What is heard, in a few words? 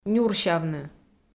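"нюрсявны", Komi, female; kpv audio dict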